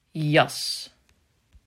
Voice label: "Jas", Dutch